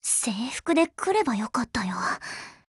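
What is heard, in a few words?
BREAK, Japanese; GF Alarm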